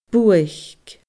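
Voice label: "buaic", Scottish Gaelic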